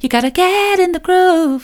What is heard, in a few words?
youve gotta get in the groove soft; vocal